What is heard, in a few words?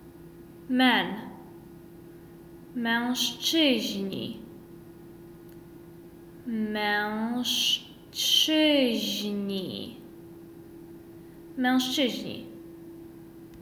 Pol Men